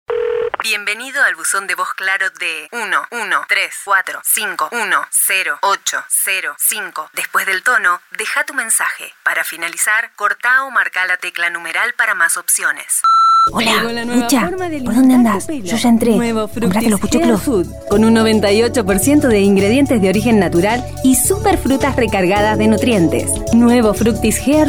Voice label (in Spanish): Versatil Calida Comercial, voces femeninas